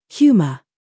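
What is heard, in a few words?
"humor", British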